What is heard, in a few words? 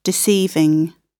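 "deceiving", British English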